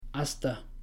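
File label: "hasta", Spanish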